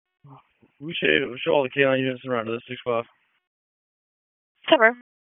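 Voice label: Police Northeast District Dispatch, p25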